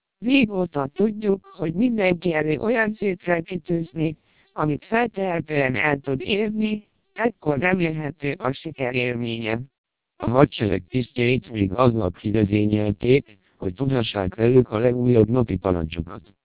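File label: TWELP 400 bps Vocoder, Hungarian speech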